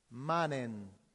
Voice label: "manent", latin; santillana

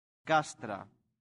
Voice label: "castra", latin; santillana

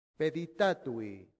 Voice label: "peditatui", Latin; santillana

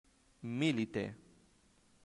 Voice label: "milite", latin; santillana

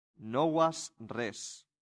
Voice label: "novas res", latin; santillana

